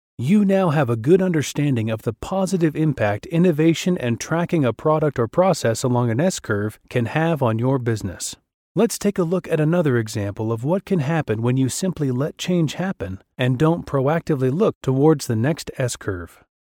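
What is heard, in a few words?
English USA male, english american voice over talent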